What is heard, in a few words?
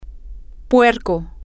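pork, Spanish, female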